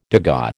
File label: "degas", American